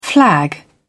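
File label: "flag", British English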